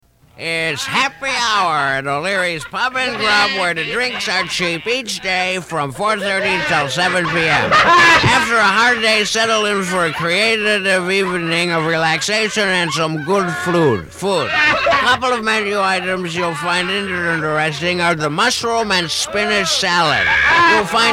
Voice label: Commercial; Oleareys happy hour